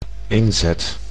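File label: "inzet", Dutch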